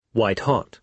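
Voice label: "white-hot", British English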